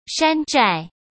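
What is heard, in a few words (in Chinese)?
"山寨", Mandarin Chinese; chinese flashcards; hsk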